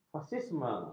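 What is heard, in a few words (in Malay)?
"fasisme", Malay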